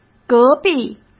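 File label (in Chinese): "隔壁" Mandarin; hsk; chinese-flashcards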